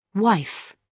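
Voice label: "wife", British English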